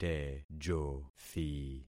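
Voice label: "tejothi", concatenated syllables; Affixing experiment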